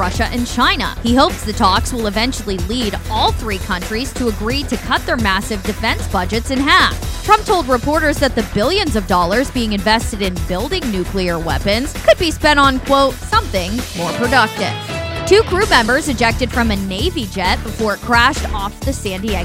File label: News Flash